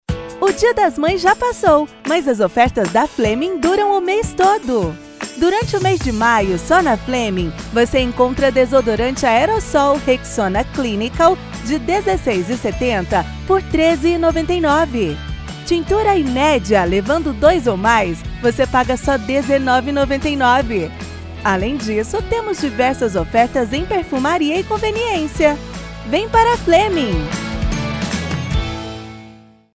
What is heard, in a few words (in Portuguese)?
Spot Simples